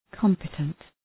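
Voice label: "competent", English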